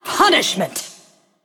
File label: Female Forsaken Attack